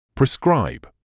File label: "prescribe", English